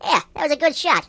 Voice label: "Amazing", Mobster; hedgewars

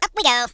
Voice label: Jump, Hill Billy; hedgewars

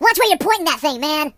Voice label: "Watch it", Surfer; HedgewarsMobile

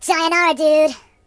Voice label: "Byebye", Surfer voice; hedgewars

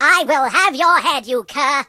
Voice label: You'll regret that, British; hedgewars

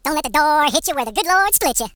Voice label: "Byebye", HillBilly; hedgewars

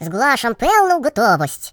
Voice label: Yes sir, Russian; Russian pl

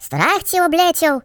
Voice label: Coward, Russian; Russian pl

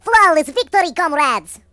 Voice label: Flawless, voices Russian; hedgewars

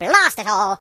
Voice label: "Drat", British; hedgewars

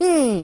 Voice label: "Hmm", voices Russian; hedgewars

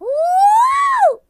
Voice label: Firepunch, uk; hedgewars; HedgewarsMobile; Default uk

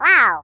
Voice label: Jump, Default ru; hedgewars

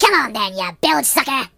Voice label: Come on then, Pirate voices; hedgewars

